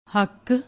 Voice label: "hak", Punjabi